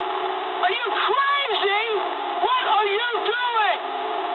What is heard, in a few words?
eng player killed ally mood high, speech eng; War Thunder